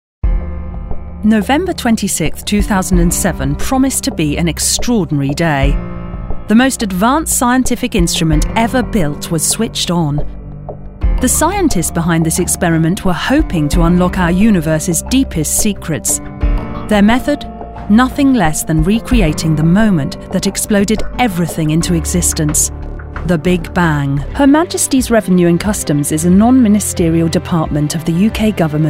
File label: Narrative